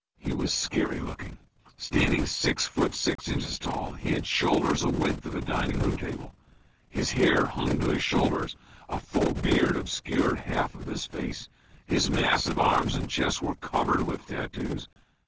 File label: male clean